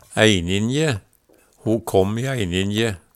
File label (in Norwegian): "eininje", Numedalsmål; ofte; Ho kom i eininje.